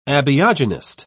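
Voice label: "abiogenist", British English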